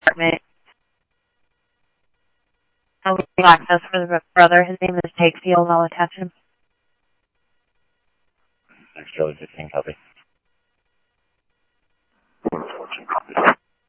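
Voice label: Mesa Police Department, scanner audio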